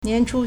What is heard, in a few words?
"nian2chu1", Chinese